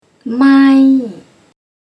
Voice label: "Mâi", Thai